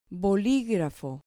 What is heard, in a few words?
"boligrafo", Spanish